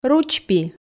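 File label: "ручпи", Komi, female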